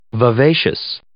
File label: "vivacious", English